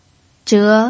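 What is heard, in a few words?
"zhe" Mandarin, second tone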